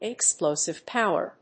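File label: "explosive power", English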